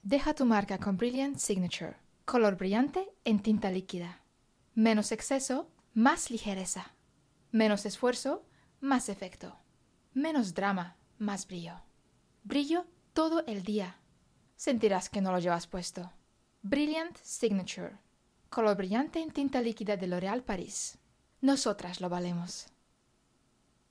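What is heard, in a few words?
European Spanish, Commercial; GoLocalise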